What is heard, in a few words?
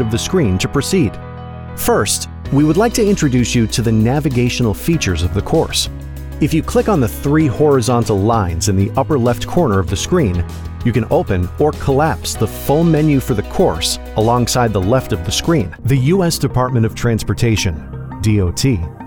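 e-learning voiceover, standard us; explainer video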